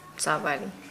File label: Nukuoro; be hungry